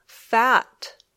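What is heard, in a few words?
"fat", English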